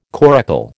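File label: "coracle", American English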